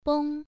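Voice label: "beng" Mandarin, first tone, pronunciation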